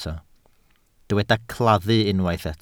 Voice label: "claddu", Welsh

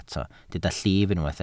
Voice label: "llif", Welsh

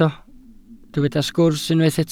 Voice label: "sgwrs" Welsh

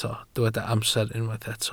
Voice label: "amser", Welsh

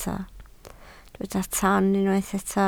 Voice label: "ton" Welsh

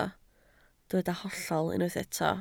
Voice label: "hollol", Welsh

